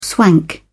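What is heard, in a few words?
"swank", British English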